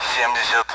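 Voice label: Speech Sound Russian Common AWACS Numbers; 70-continue; DCS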